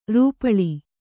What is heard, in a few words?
"ರೂಪಿಲಿ", Kannada